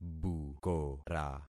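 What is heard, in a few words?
"bukora", concatenated syllables; Affixing experiment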